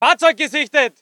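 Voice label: voice german infantry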